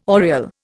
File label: "oriel", Indian